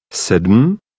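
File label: "sedm", Czech, male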